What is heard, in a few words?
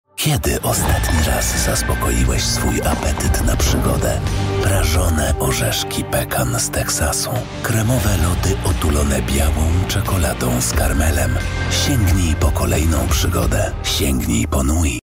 PL, voice artists